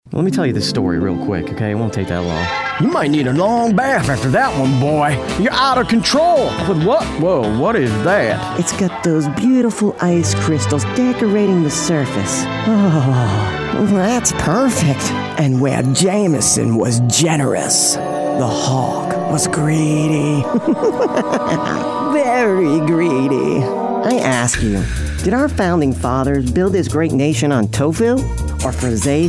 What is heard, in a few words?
Character and Cartoon